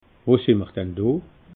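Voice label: "wo sinn mer do", Alsatian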